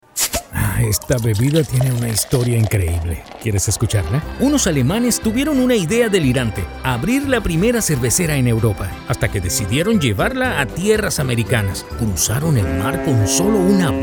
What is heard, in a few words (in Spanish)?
DEMO COMERCIAL